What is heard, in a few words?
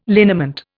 "liniment", Indian